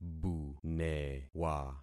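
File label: "bunewa", concatenated syllables; Affixing experiment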